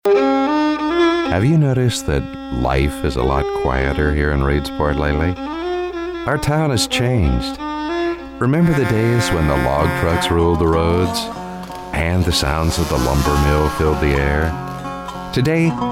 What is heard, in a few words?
soft sell